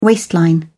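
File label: "waistline", British English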